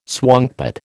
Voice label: "swankpot", American